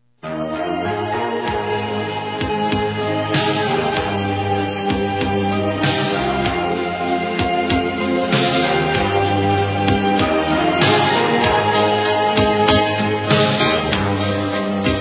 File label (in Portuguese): Formatura Geral FILHO Feminino; Telemensagens; Formaturas; Telemensagens 2017